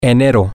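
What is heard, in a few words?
january, Spanish, male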